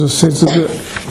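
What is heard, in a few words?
"sezuku", Minamiyamagata dialect Japanese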